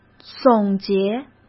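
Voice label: "总结", Mandarin Chinese; chinese-flashcards; hsk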